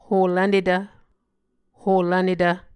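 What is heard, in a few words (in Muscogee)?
"holvnetv", Muscogee word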